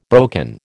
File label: "broken", American